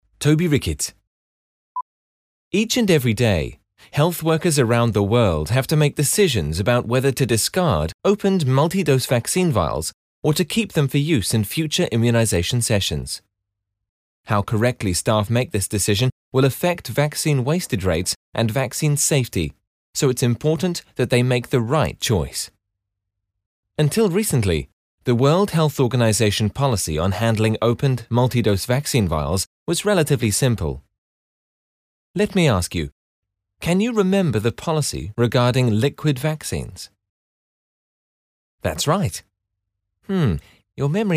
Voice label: UK eLearning

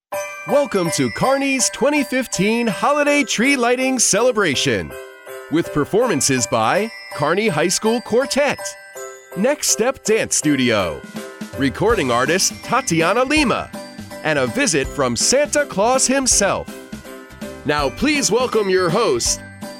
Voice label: Live Announcement

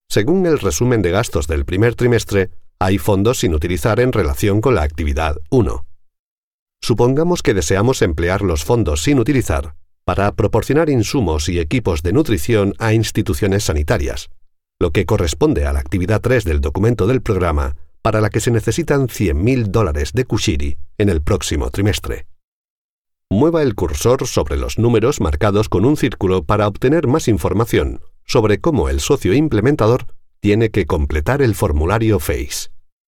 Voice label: e-learning

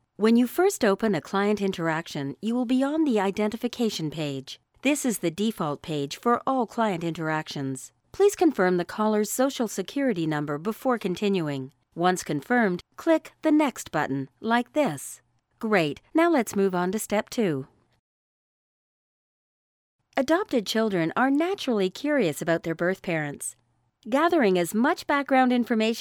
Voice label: eLearning

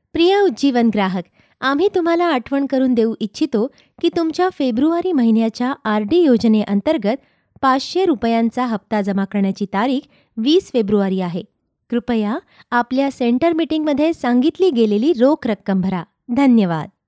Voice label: Marathi IVR Slight excitement

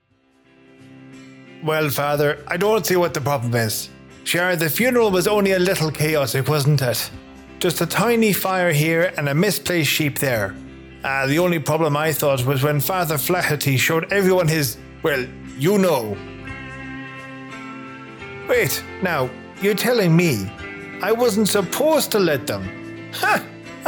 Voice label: Irish

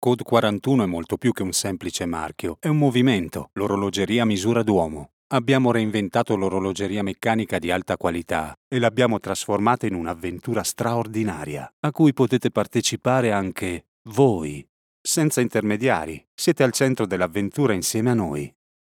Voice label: ITA COMMERCIAL; CODE41

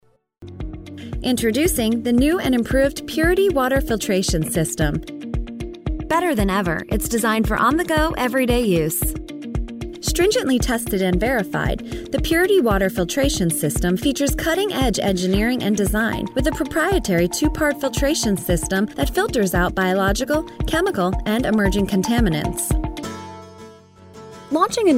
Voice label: Narrations Instructional

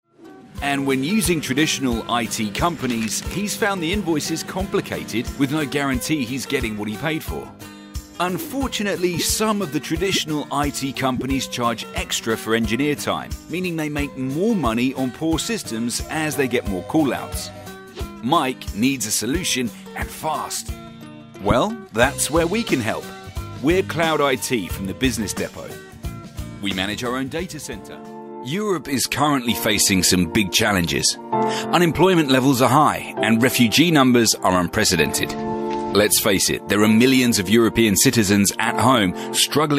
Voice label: BRITISH MALE EXPLAINERS

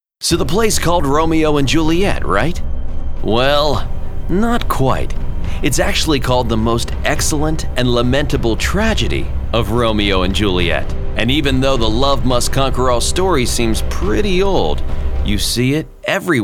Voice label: Educational Narration

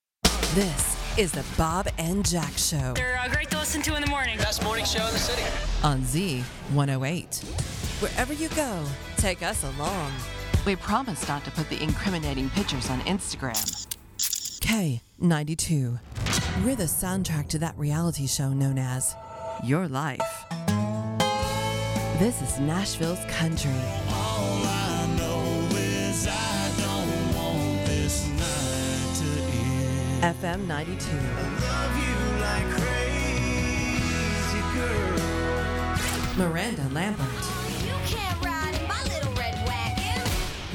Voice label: RADIO IMAGING

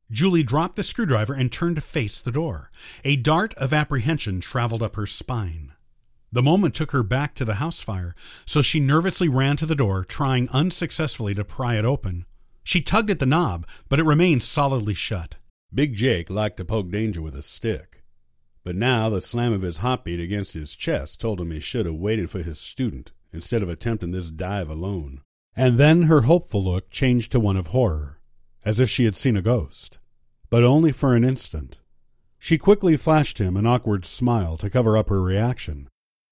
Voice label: Audiobook

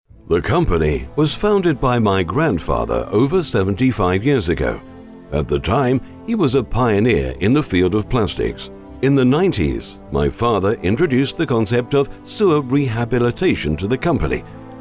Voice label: FRIENDLY CORPORATE

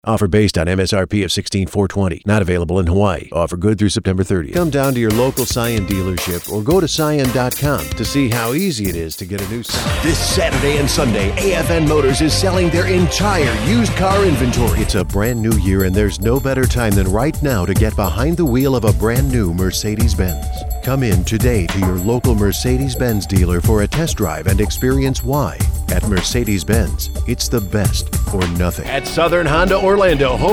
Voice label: Auto Commercials

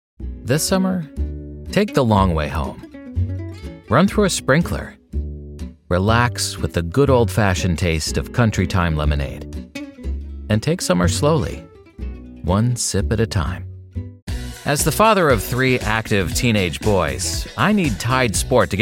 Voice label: Conversational

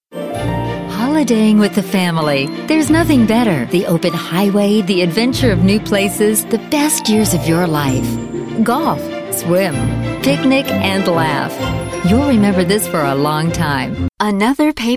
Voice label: COMMERCIAL AND BUSINESS

